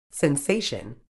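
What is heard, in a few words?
"sensation", British English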